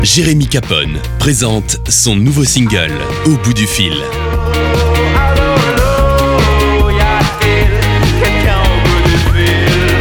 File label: Spot radio; RTL2; promotion single